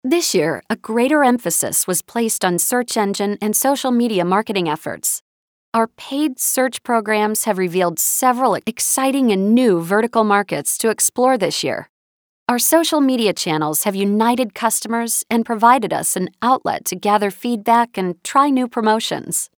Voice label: F US English Business Narration